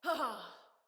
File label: Female, Battle Barks; Envoy